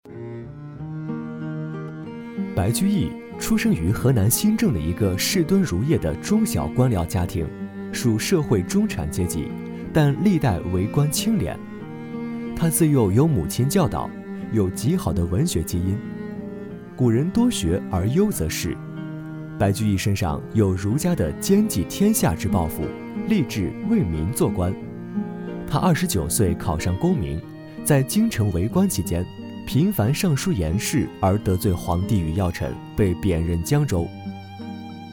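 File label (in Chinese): 沉稳讲述旁白; 白居易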